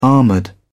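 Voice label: "armored", British English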